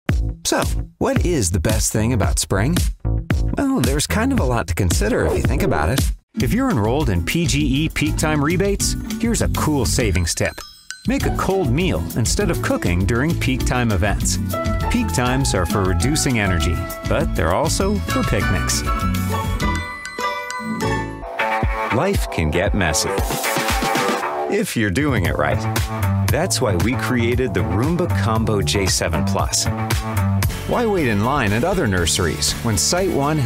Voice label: Commercial